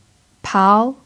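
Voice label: "pao" second tone, chinese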